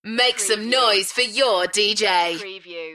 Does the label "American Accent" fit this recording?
No